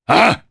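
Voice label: voice, Japanese; Baudouin; kingsraid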